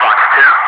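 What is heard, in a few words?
"FOX 2", English, Wingman; Messages; DCS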